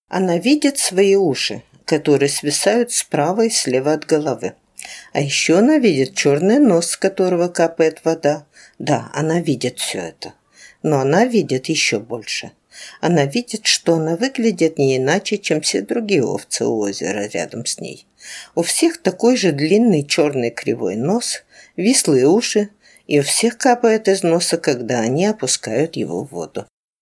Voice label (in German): russisch